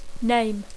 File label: "name", English